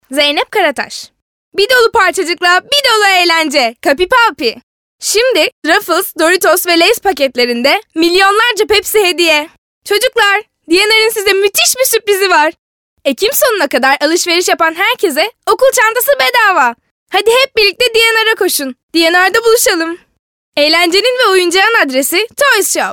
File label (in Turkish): Reklam